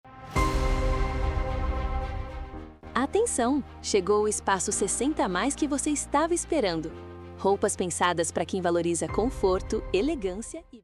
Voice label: Vinheta comercial profissional audio loja roupa 60 mais; setor 60 mais

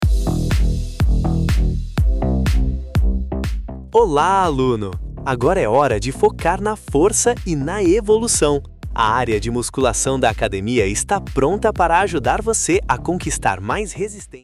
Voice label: Vinheta Comercial Profissional em audio Setor de Musculacao Academia

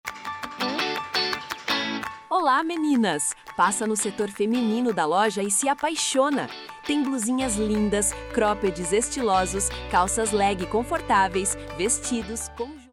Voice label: Setor Feminino, vinheta comercial voz; loja de roupas

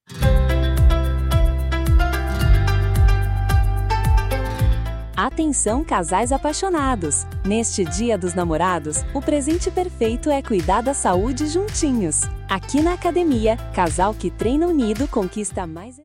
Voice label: Dia dos Namorados Vinheta Academia

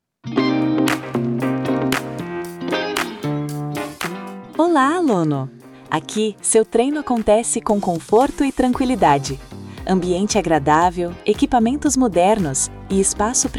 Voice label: Vinheta Comercial Profissional em Audio conforto no Treino Academia

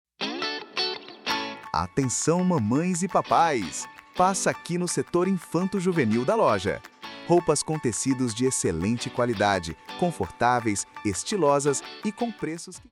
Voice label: Setor Infantojuvenil, vinheta comercial voz; loja de roupas

